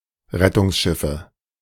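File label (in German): "Rettungsschiffe", German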